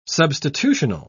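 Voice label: "substitutional", British English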